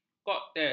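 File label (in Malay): "koktel", Malay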